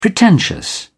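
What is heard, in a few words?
"pretentious", British English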